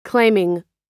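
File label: "claiming", American English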